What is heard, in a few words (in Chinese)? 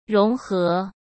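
"融合", Mandarin Chinese; chinese-flashcards; hsk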